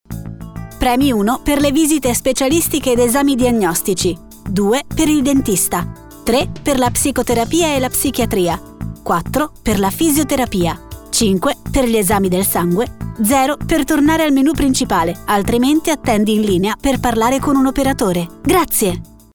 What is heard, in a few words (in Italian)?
segreteria